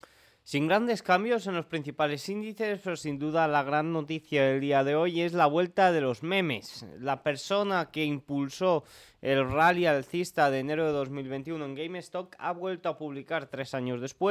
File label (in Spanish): radio; noticias bolsa